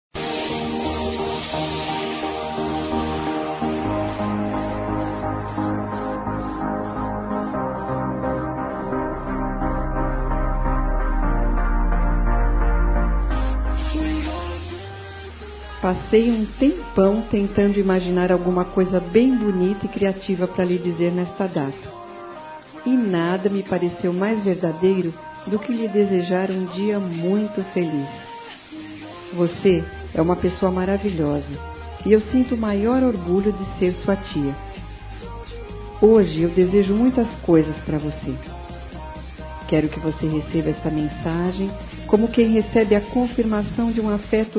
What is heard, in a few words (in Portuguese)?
SOBRINHO(A) F; Telemensagens 2017; Aniversários; Aniv Familiar